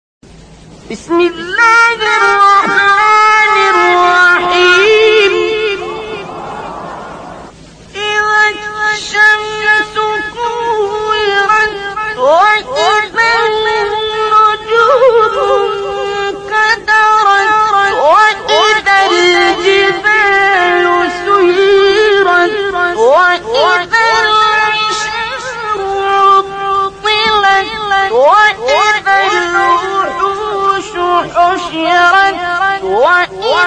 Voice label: تلاوت سوره تکویر; عبدالباسط

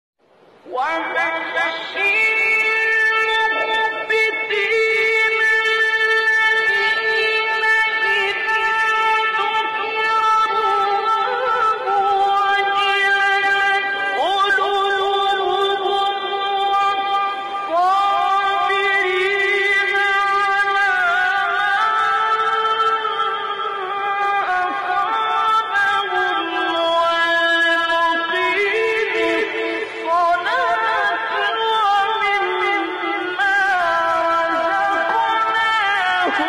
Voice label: تلاوت زیبای سوره حج; آیه 35; استاد عبدالباسط

